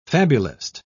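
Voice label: "fabulist", British English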